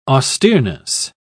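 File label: "austereness", British English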